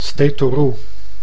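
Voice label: "steatorroe", Dutch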